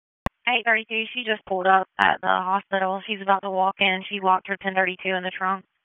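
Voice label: Sheriff's Office Dispatch 1, p25; wake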